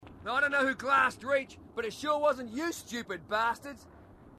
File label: marine, marine aussie; h2dialogue